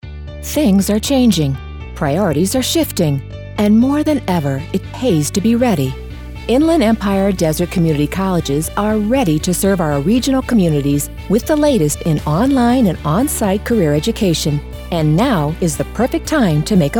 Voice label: Radio Spot Female; Inland